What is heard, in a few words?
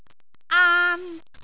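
"aan1", Cantonese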